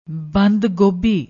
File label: "band gobi", Punjabi